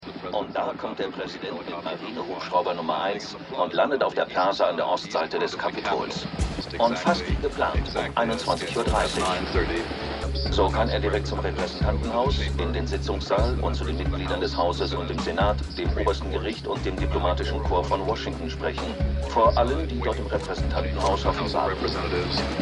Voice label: Nachrichtensprecher VO; 1956; White House Plumbers

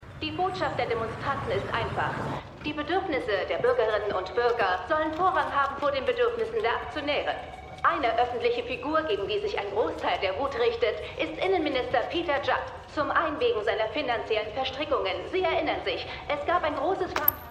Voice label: Reporterin; Slow Horses

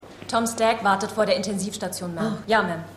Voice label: Polizistin; The Fall

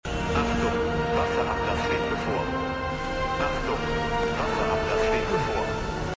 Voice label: Durchsage; Foundation

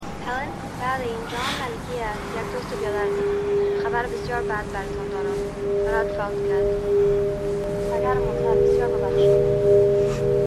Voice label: Farsi Stimme; Alles, was ich brauche; New Amsterdam

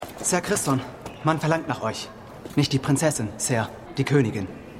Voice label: Junger Hofdiener, Stimmproben; House Of The Dragon

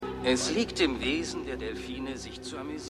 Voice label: TV Dokusprecher

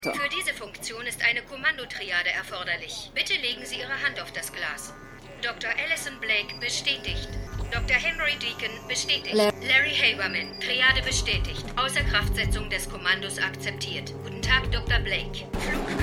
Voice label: Computerstimme; Stimmproben; Eureka

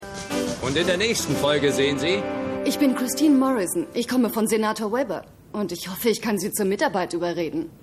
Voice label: Vorschausprecher

